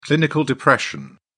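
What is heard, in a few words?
"clinical depression", British English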